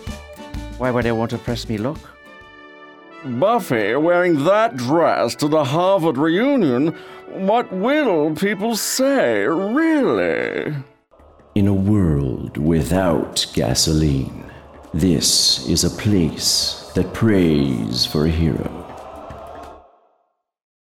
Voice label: character demo, VO